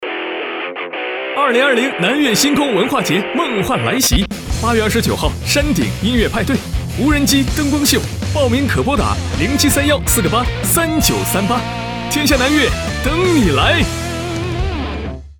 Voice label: 促销广告

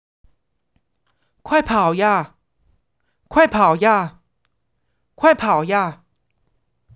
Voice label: 采访录音

